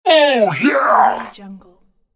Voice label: monster voice oh yeah; gamechestaudio